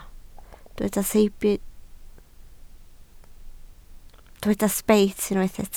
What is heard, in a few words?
"sbeit", Welsh